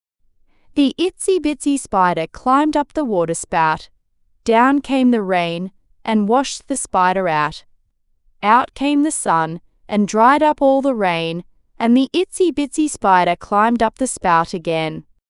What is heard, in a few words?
fem aus; Itsy Bitsy Spider